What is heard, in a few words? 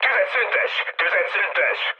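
Hungarian player friendly fire mood low, speech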